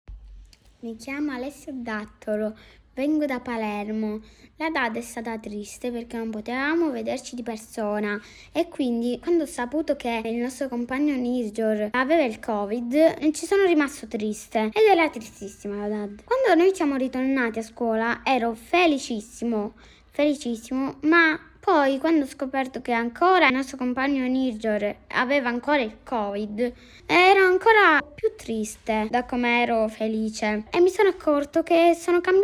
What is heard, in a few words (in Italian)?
Sicilia Palermo, bambini; 9 anni